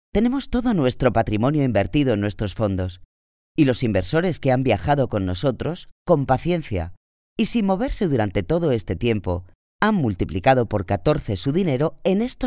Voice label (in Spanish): locutora spanish voiceover